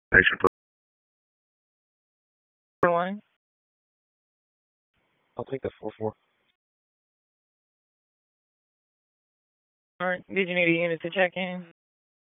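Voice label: Police Northeast District Disp, radio; Raleigh Police Northeast District Disp; wake; p25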